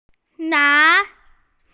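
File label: "nan2", Mandarin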